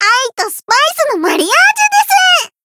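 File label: variable chant, Japanese; loveli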